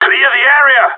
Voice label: bomb fallback, radio; GSG9